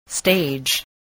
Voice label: "stage", voice